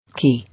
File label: "Key", English